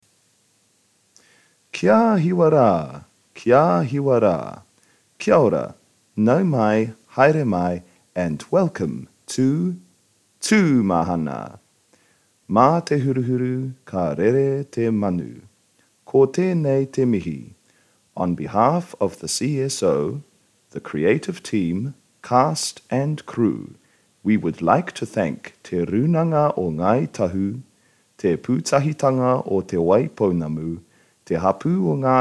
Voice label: announcements